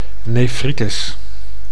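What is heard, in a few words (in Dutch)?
"nefritis", Dutch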